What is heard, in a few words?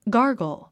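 "gargle", English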